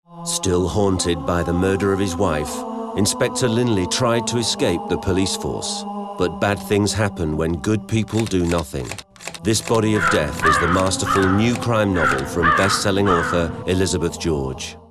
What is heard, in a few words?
International English